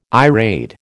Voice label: "irade", American

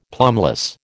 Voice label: "plumbless", American

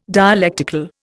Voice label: "dialectical", Indian

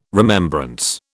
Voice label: "remembrance", British

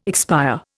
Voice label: "expire", Indian

